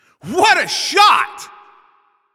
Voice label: Announcer Especially Good Shot